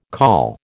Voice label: "col", American

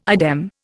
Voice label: "idem", Indian

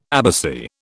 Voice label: "abbacy", British

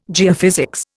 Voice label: "geophysics", Indian

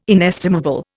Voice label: "inestimable", Indian

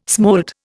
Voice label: "smolt", Indian

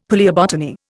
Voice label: "palaeobotany", Indian; Dictionary

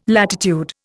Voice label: "latitude", Indian

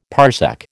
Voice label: "parsec", American

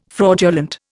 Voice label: "fraudulent", Indian

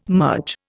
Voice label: "merge", Indian

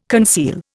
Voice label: "conceal", Indian

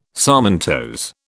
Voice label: "sarmentose", British

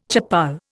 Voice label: "chappal", Indian

